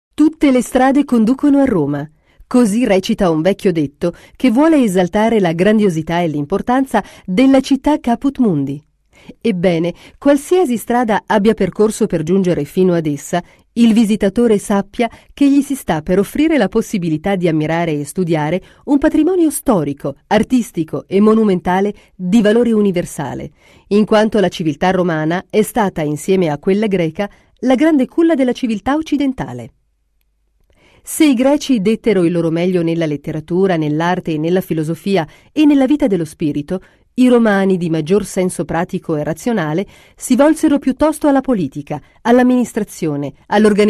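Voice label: Italian, female, corporate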